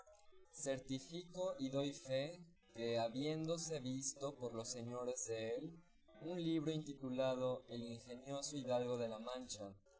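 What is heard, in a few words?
male instantaneous source; SiSEC10; underdetermined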